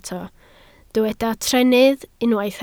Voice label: "trennydd", Welsh